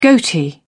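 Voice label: "goatee", British English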